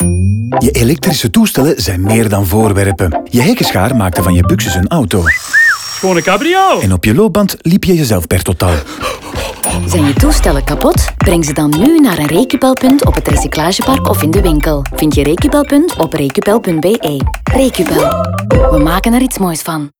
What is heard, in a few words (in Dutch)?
radio nl music intro; recupel